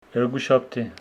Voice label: Homshetsi monday

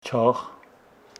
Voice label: Homshetsi rain

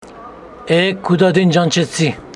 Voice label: Homshetsi, Speaker, i met your father yesterday

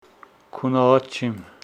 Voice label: Homshetsi i am sleepy

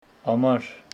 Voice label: Homshetsi summer

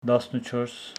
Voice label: Homshetsi fourteen

